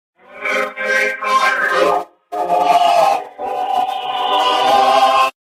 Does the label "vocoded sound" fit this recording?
Yes